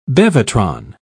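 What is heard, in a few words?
"bevatron", British English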